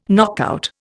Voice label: "knockout", Indian